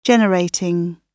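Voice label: "generating", British English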